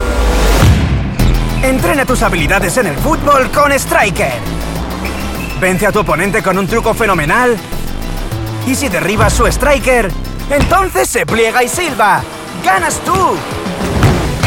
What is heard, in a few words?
promo; striker